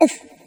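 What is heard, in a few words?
Ooff, Spanish; hedgewars; Default es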